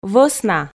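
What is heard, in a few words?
"вӧсна", Komi, female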